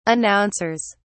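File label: "announcers", British English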